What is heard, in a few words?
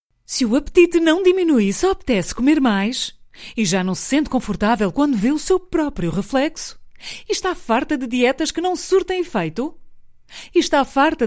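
Portuguese female commercial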